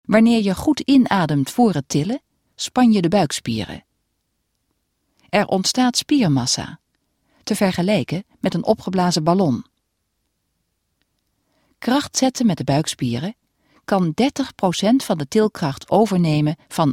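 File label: NL F elearning, Dutch, female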